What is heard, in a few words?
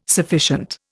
"sufficient", Indian